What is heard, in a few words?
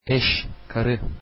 wife, turkish